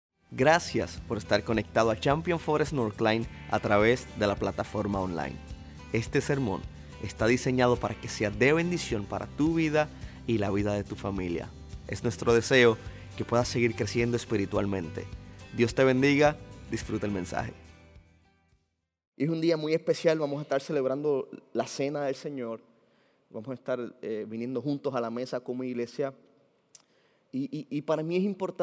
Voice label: Sermon Spanish